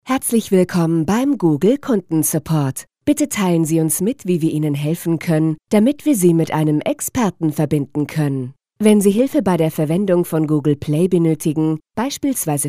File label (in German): Telefonansagen Mix